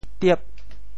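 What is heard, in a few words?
"tiep8", Teochew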